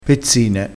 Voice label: "pezzine", crespinese

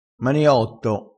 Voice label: "maniotto", crespinese

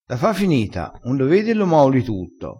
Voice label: "maola", crespinese, vocabolario dialettale

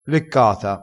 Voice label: "leccata", crespinese, vocabolario dialettale

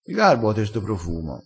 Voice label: "garba", crespinese

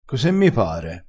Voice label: "cos e mi pare", crespinese

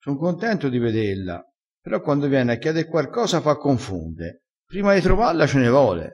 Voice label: "fa confonde", vocabolario dialettale

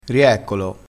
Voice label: "rieccolo", dialettale, crespinese

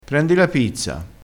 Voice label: "a bollore", Crespinese

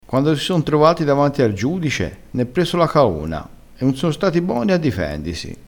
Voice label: "difendisi", vocabolario dialettale

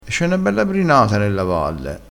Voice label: "brinata", crespinese

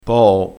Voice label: "poo", crespinese

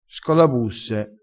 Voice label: "scolabusse", crespinese

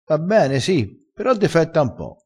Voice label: "defetta", crespinese

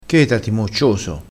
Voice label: "moccioso", crespinese

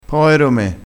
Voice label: "poero", crespinese

